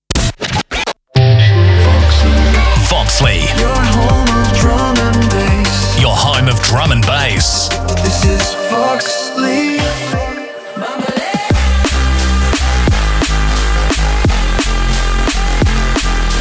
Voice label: Imaging AI Montage; Voxlee